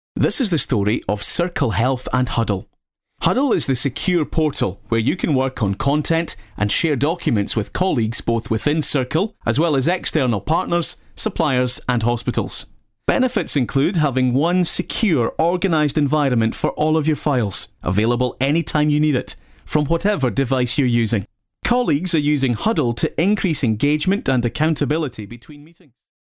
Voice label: male scottish voice over talent narrative